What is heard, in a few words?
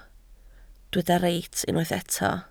"reit", Welsh